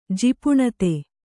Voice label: "ಜಿಪುಣತೆ", Kannada

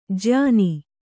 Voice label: "ಜಯನ", Kannada

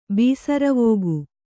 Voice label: "ಬೀಸರವೋಗು", Kannada

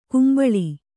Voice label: "ಕುಂಬಳಿ", Kannada

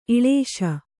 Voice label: "ಇಳೇಶ", kannada

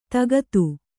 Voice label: "ದಗದ", Kannada

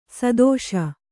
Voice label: "ಸದಸ್ಸು", Kannada

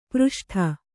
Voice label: "ಪ್ರಷ್ಠ", Kannada

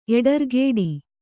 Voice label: "ಎಡರ್ಗೇಡಿ", Kannada

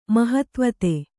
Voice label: "ಮಹತ್ವತೆ", kannada

